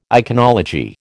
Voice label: "iconology", American